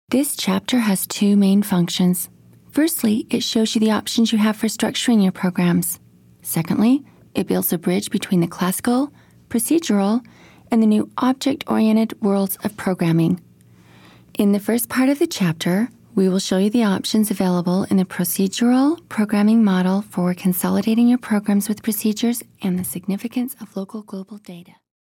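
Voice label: ind demo, Industrial, Voice Actress; Voice Demos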